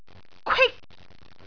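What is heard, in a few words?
"kwik1", Cantonese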